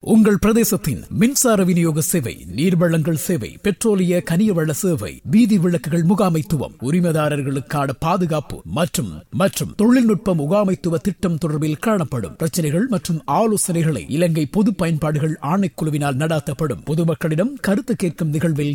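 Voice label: Tamil Sri Lankan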